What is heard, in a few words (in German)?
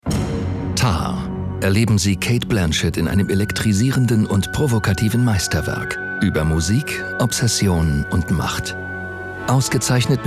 de kinotrailer episch; tar